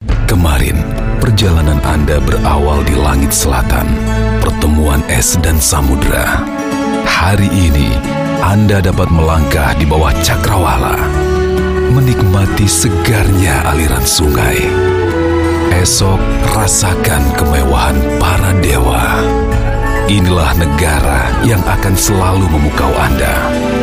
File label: Bahasa Indonesia voice sample